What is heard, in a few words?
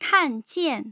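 "kanjian", Mandarin Chinese, tone pairs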